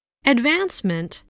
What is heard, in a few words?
"advancement", English voice